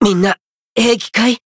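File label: Voice win barely, Japanese; curio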